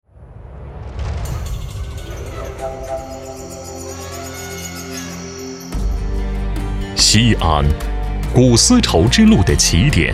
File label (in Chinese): 男 宣传片